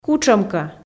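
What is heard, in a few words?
"кутшӧмкӧ", Komi, female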